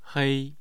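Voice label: "hei", Mandarin Chinese, tone 1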